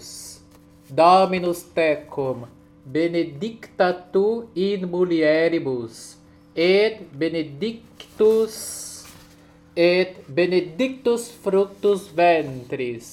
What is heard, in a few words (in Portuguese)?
Ave Maria (Schubert) Leitura Ritmica Solo